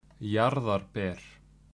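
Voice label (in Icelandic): "jarðarber", Icelandic; matarhefti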